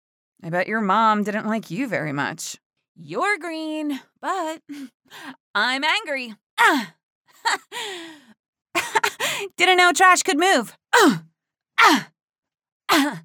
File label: Recruit Female